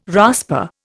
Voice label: "rasper", Indian